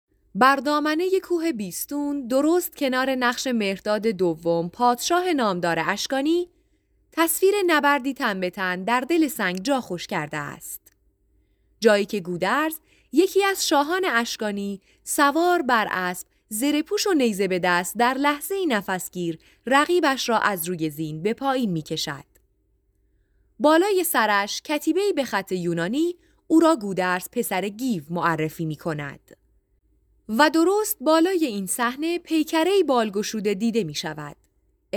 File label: persian; bas relief of gotarzes; godarz